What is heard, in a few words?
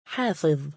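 "7aafiZ" male, Arabic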